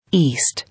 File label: "East", English